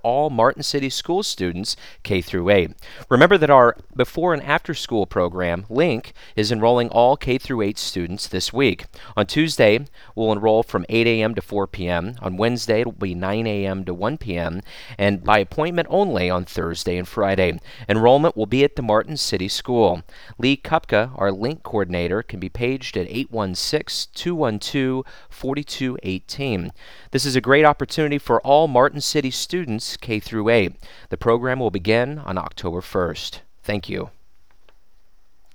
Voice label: phone message, voiceovers